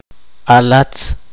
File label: poqomchi; ascendencia